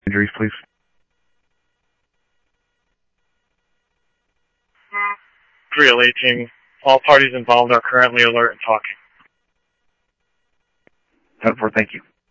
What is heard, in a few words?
Police, scanner audio trunk; H-Scottsdale